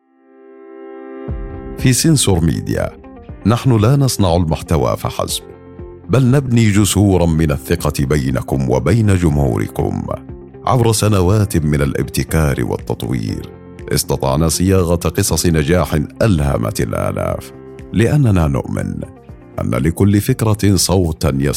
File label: voiceover